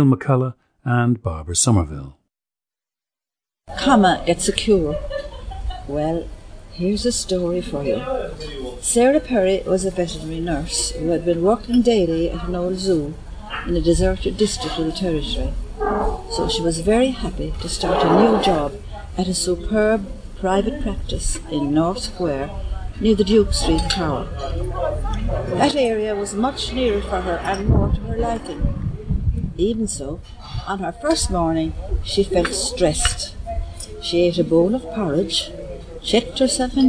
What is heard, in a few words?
ireland, dialects